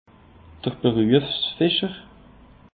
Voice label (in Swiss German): "beruefsfischer", alsace; peche